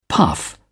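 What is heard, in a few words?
"puff", British English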